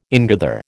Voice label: "ingather", American

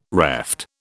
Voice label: "reft", British

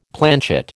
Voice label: "planchet", American

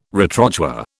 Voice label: "retrochoir", British

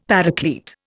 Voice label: "Paraclete", Indian; Dictionary

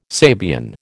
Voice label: "Sabian", American

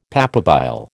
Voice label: "papabile", American

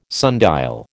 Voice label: "sundial", American

